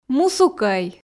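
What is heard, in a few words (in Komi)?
мусукӧй, Komi, female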